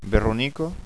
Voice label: "veronica", Occitan

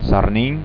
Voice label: "sarnin", Occitan

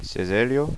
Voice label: "ceselha", Occitan

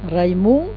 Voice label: "raimon", Occitan

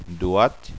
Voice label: "doat", Occitan

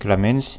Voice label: "clamenc", Occitan

